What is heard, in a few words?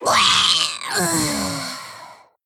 Female Geist Death